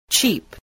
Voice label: "cheap", English, voice